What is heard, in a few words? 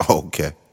"okay", expletives